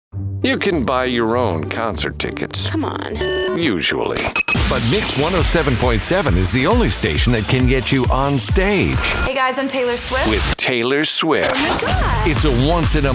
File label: Radio Imaging Voice Over Hot AC CHR